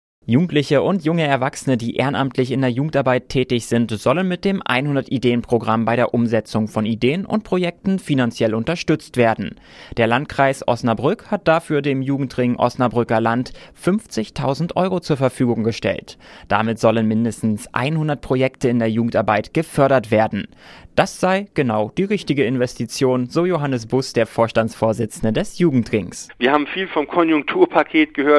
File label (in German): Radiointerview; OS Radio Februar 2010